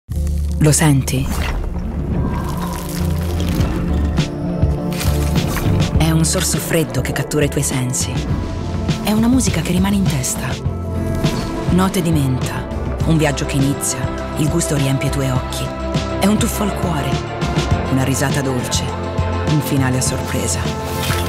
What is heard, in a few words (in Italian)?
spot; branca menta